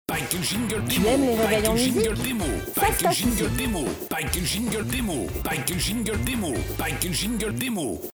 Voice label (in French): Tu aimes les réveils en musique Ça se passe ici ! voix femme; jingle radio généraliste animateur voix homme et femme